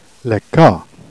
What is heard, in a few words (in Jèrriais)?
"le cat", Jèrriais